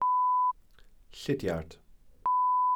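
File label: "llidiard", Welsh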